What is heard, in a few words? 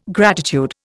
"gratitude", Indian